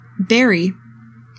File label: "BURY", english; 3000 words